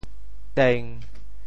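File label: "teng1", Teochew; 潮州; 人丁稀微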